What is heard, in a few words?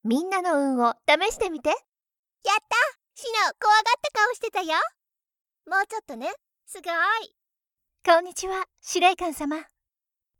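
anime video games voice-over Japanese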